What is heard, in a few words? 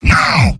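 "no", robot; demoman; mvm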